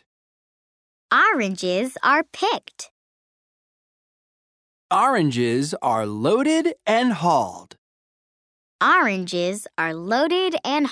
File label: Echo Read; Oranges for Orange Juice